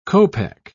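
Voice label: "copeck", British English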